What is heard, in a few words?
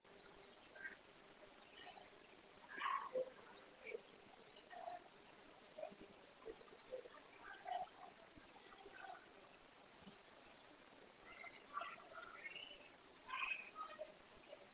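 Boiler Room Voices Crying Out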